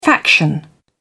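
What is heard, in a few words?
"faction", British English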